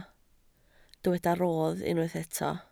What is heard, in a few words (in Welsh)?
"rodd", Welsh